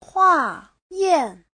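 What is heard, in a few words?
"huàyàn", Chinese; Giáo trình Hán ngữ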